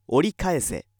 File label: male